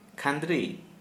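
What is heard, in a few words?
Santali male deep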